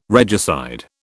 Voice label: "regicide", British

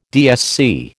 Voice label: "DSC", American

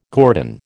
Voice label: "guerdon", American

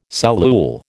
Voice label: "cellule", American

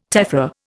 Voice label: "tephra", Indian

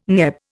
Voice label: "NEB", Indian

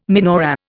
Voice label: "menorah", Indian

